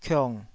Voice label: "kiong3", taiwanese syllables